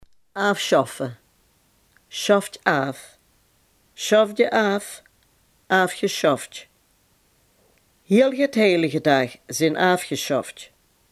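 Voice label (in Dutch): "afschaffen" Limburgish dialect word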